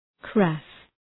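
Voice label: "crass", English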